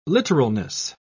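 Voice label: "literalness", British English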